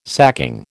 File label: "sacking", American